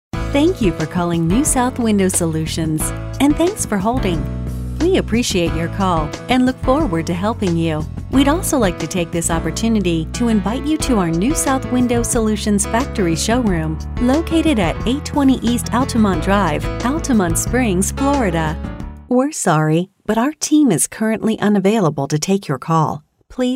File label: TELEPHONY